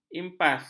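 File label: "impas", Malay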